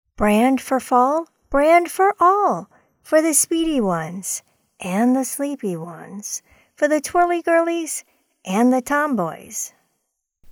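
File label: English, US, female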